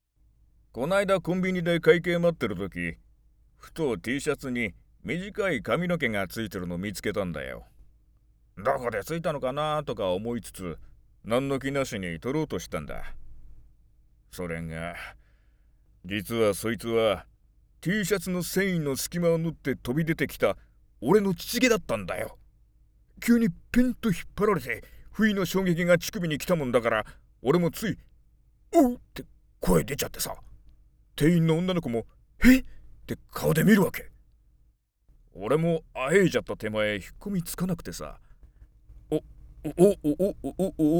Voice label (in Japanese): セリフ, 中年, 漫談; 「超どうでもいい話」